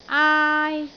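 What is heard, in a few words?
"aai1", Cantonese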